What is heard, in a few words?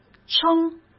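"称", Mandarin Chinese; chinese flashcards; hsk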